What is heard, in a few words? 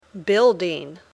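"building", English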